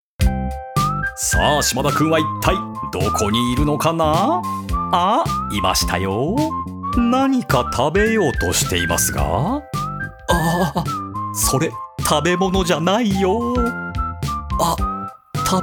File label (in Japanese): バラエティ番組風